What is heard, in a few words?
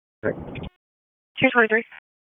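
Police Northeast District Disp, radio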